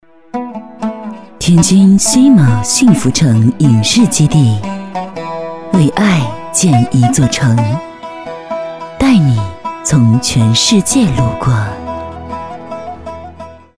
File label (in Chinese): 女-广告-房地产; 天津幸福城